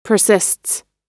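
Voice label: "persists", American English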